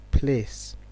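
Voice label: "place", Nigerian Pidgin, male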